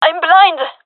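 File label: blinded, female; vo; gendarmerie fem epic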